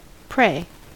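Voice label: "prey", American English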